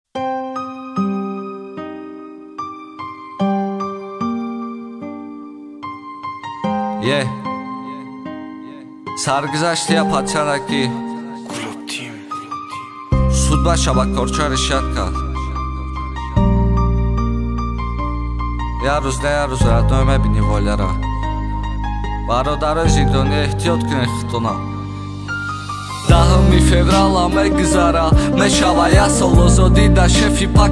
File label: rap; arab